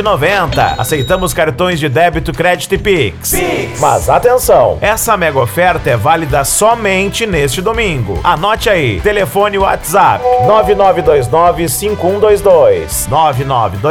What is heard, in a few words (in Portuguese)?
Locutor Pizzaria; Michelangelo; Aguas Lindas GO